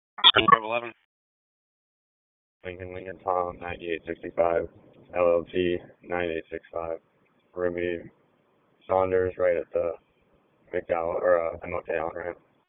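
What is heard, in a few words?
Police Downtown District Dispatch, p25; Raleigh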